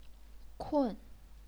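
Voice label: "kun", Chinese, fourth tone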